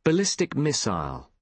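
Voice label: "ballistic missile", British English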